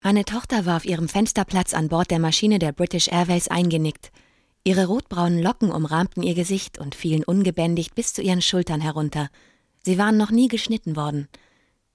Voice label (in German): erzaehlung, Tale (Erzählung)